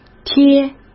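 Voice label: "贴", Mandarin Chinese; hsk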